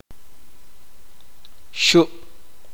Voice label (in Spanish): "pierna", chuj